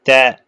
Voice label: short voyels arabic alphabet